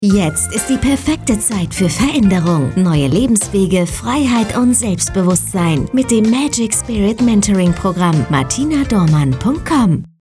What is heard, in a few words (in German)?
Funkspot